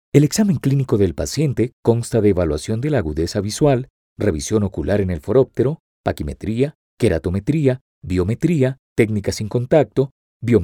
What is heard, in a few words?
spanish latin american medical narration